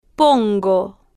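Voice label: "pongo", slow, Spanish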